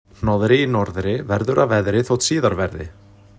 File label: icelandic fast; phon lab